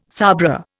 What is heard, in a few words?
"sabra", Indian